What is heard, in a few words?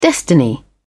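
"destiny", British English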